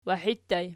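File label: "wahi" ergative plural, Archi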